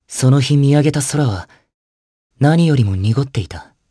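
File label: voice dia, voices heroes, Japanese; Theo; kingsraid audio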